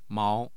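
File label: "mao", Mandarin Chinese, tone 2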